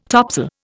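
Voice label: "topside", Indian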